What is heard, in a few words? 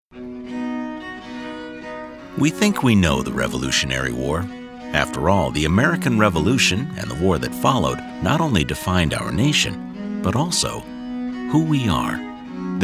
men narration